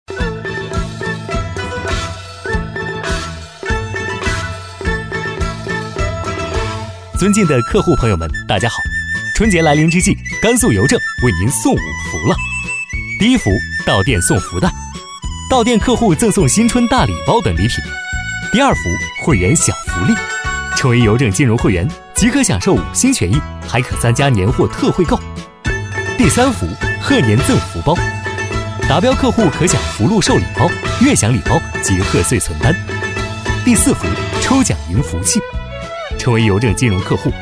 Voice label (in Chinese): 男C9-广告配音-喜庆欢快, 年轻质感 轻松活泼; 《邮政》; 男C9-广告配音《邮政》-喜庆欢快